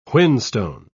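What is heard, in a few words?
"whinstone", British English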